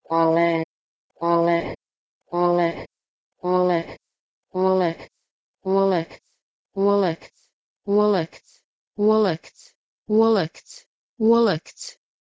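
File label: Proto-Indo-European Heh3le to Latvian olekts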